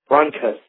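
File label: "rhonchus", English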